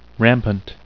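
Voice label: "rampant", English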